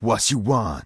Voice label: Troll Male Shaman NPC Greeting

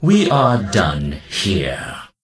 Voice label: Death Knight Male Low Farewell

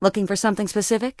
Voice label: Human Female Warrior NPC Vendor

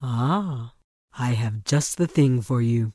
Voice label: Tauren Female Official Vendor; NPC

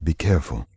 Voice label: Night Elf Male Standard Farewell

